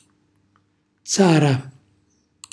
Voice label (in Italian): "zzara", Calabrese; cucinacalabrese; glossario